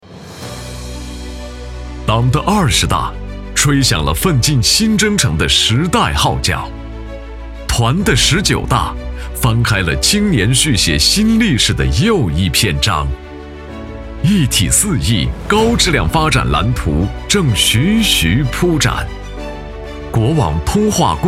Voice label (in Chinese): 男 专题; 国网通化供电公司团委